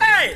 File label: "hey", voice